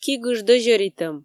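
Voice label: "ки гыж дӧзьӧритӧм", Komi, female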